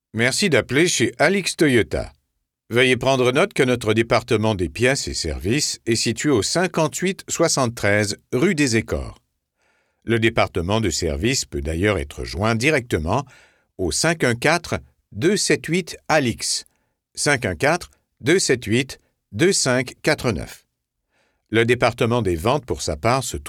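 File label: French Canadian, IVR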